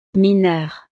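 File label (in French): "mineur", French; minero; profesiones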